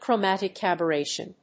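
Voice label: "chromatic aberration", English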